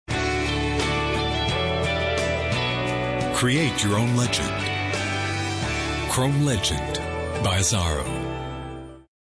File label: Voix Off Voice Over, bilingue Américain Français; Azzaro US